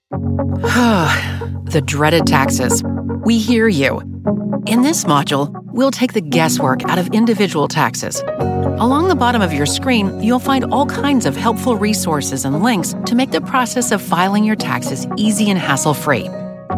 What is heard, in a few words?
northern American, e-learning